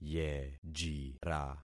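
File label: "yejira", concatenated syllables; Affixing experiment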